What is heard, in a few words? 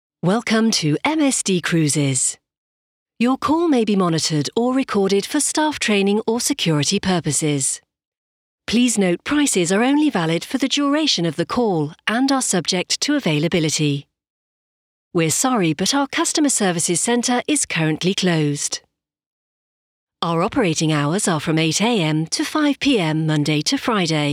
M telephony